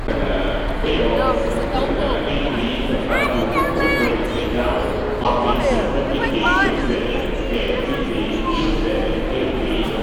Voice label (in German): ansage; Fieldrecordings